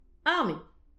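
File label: "arme", Breton; petit precis de prononciation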